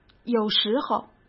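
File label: "有时候", Mandarin Chinese; chinese-flashcards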